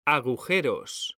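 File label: "agujeros", Spanish, male; mekanta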